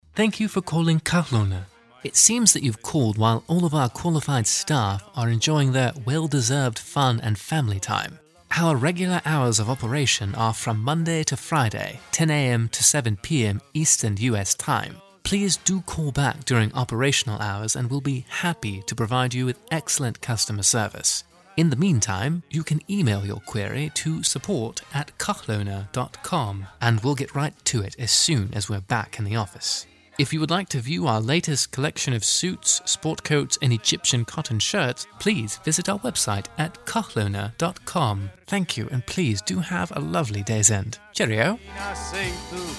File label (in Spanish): locutor ingles; inglaterra